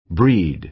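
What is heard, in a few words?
"breed", American English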